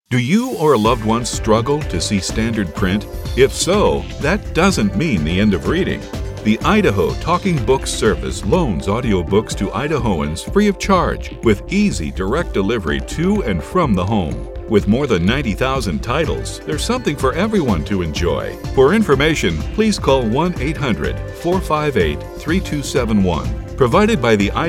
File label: radio spot; TBS